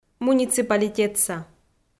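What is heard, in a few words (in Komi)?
"муниципалитетса", Komi, female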